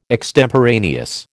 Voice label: "extemporaneous", American